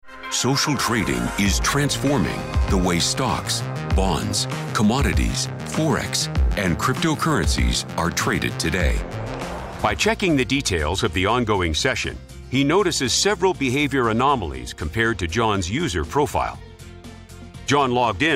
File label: voiceover examples